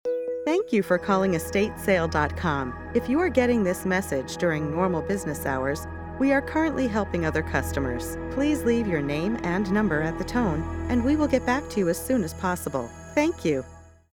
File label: Estate Sale Mono